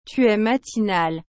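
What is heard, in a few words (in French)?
"Tu es matinal", French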